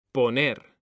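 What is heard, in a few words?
"poner", Spanish, male; mekanta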